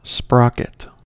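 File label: "sprocket", US English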